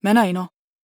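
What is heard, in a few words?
question short, voice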